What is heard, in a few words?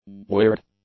"weird", English; adjectives